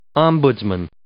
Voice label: "ombudsman", English